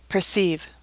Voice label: "perceive", English; AWL